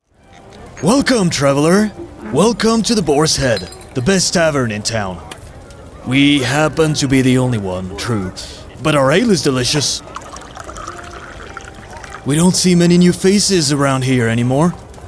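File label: Character Natural, English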